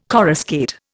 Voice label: "coruscate", Indian